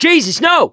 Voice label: reaction negative, survivor voice mechanic

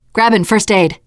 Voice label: take first aid, teengirl, voice; player; survivor

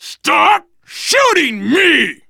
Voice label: friendly fire, voice, biker

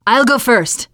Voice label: follow me, teengirl, voice

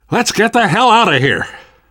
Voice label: world hospital, namvet, survivor voice

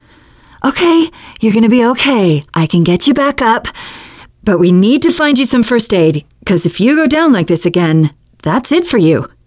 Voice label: revive critical friend, teengirl; player; survivor; voice

